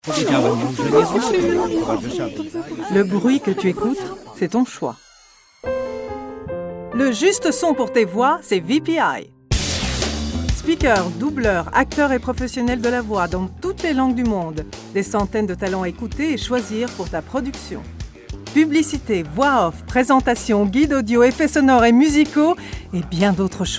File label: fr f promo